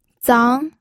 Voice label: "zang2", pinyin

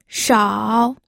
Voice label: "shao3", pinyin

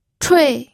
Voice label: "chui4", pinyin

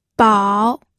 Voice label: "bao3", pinyin

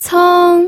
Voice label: "ceng1", pinyin

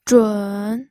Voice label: "zhun3", pinyin

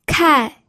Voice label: "kai4", pinyin

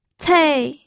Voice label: "cei4", pinyin

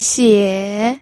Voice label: "xie3", pinyin

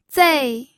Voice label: "zei4", pinyin

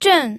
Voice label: "zhen4", pinyin

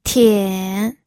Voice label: "tian3", pinyin

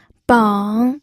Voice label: "beng3", pinyin

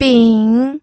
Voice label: "bing3", pinyin

